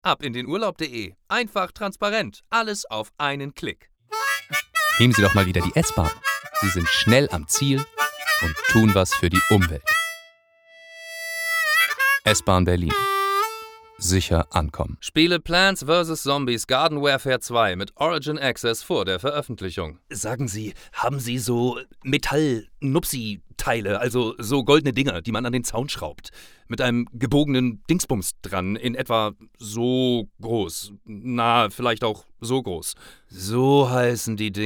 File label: commercial